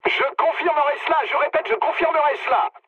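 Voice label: French player killed enemy mood med; War Thunder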